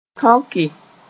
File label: "tõke", Mooré